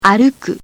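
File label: "aruku", Japanese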